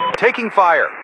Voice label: Radio pilot Im Hit

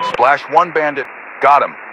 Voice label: Radio pilot Kill Air

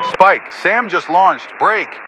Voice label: Radio pilot Warning SAM Launch

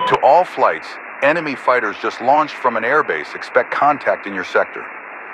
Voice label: Radio command New Enemy Aircraft

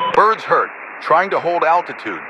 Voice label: Radio pilot Im Hit

